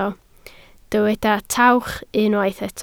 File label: "tawch", Welsh